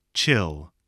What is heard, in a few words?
"chill", English, voice